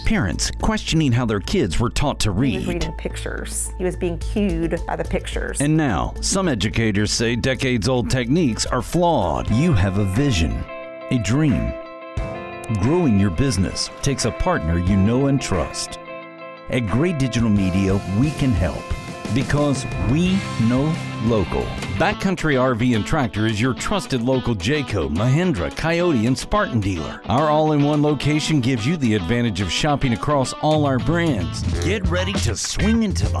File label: Voice Overs